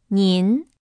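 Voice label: "nin", Chinese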